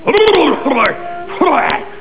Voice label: gibberish